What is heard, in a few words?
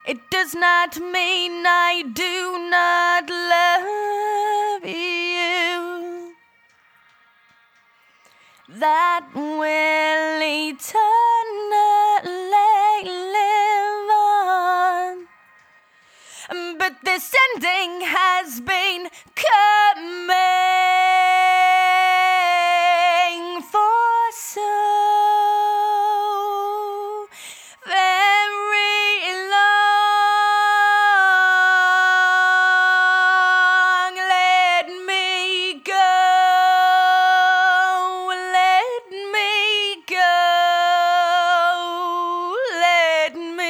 vox processed dry